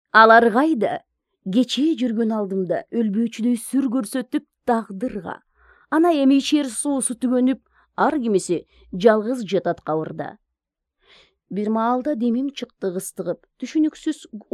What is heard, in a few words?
Kyrgyz female